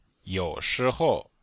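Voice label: "you3shi2hou5", Chinese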